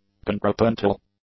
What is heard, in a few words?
"contrapuntal", English; adjectives